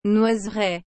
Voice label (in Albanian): "noiseraie", frengjisht